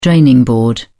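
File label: "draining board", British English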